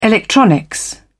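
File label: "electronics", British English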